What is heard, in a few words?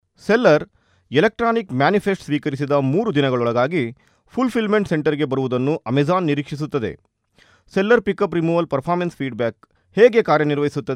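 Kannada male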